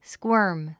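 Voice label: "squirm", English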